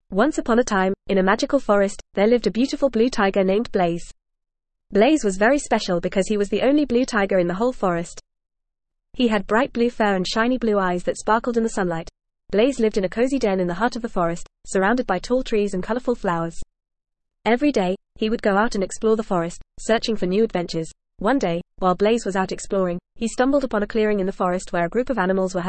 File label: ESL Short Stories for Kids FAST reading; Blaze the Blue Tiger